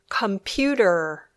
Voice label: "computer", English